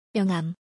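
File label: "myeongham", Korean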